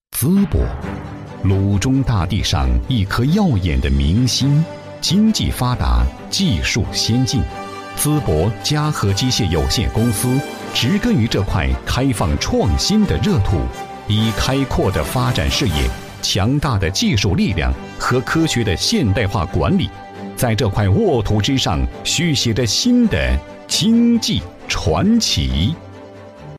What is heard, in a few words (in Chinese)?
男 企宣 大气 稳重 常规; 嘉禾机械